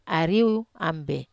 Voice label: "ariou ambe", Wamesa

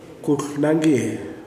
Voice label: "green", kuman

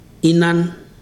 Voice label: "deafness", Ibibio

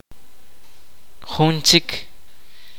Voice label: kaqchikel; different distinct

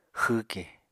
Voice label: "xwwke", Apatani

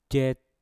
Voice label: "jet", Mpur; parang

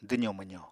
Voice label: "dwzo-mwzo", Apatani

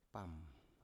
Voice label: "pam" to shoot, Biak

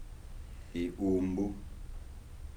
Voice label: "hat", Kibembe

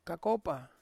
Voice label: "kakopa", Ansus; soil

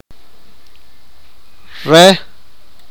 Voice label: sipakapense; she

